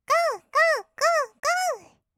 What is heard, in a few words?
gogo, kawaii voice; ASMR; 合いの手; 1200 kawaii voice!!